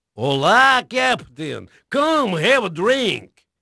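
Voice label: Portuguese male, voice; Pirates Of The Caribbean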